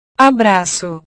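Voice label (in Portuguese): "abraco", Portuguese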